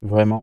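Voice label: male "vraiment" flattened transformed, French, random pitch profile; CLEESE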